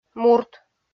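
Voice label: "мурт", Komi, female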